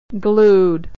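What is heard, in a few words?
"glued", American English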